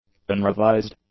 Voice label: "unrevised", english; adjectives